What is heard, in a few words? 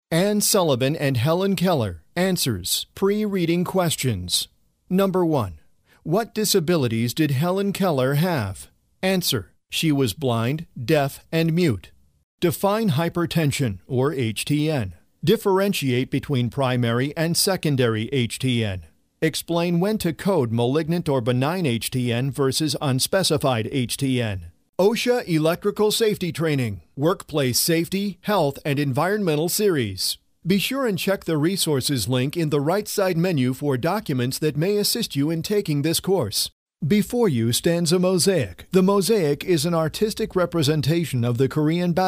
Educational